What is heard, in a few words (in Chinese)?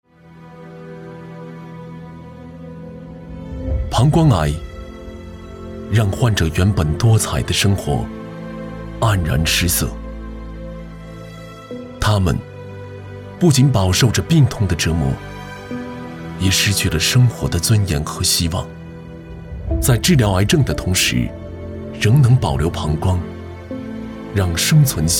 男 独白 低沉走心